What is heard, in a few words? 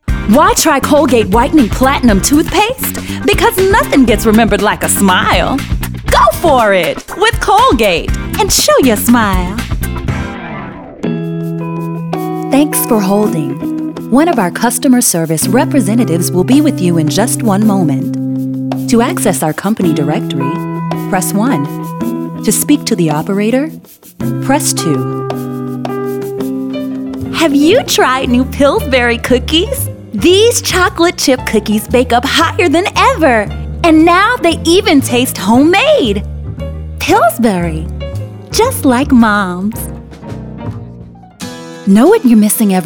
Voice Over